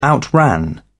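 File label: "outran", British English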